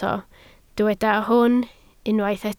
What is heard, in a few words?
"hwn", Welsh